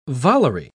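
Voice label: "volery", British English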